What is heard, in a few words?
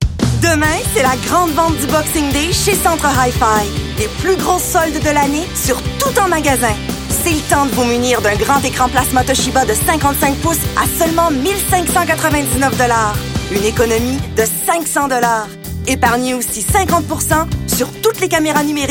hardsell fr